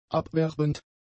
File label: "abwerbend", german; adjectives